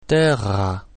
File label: "terra" German